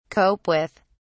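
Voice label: "cope with", British English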